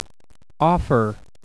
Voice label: "offer", USA